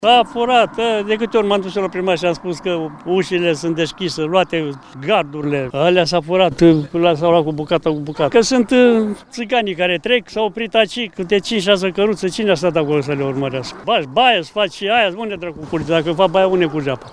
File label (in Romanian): Voxuri localnici